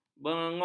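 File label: "bengung", Malay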